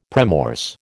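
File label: "premorse", American English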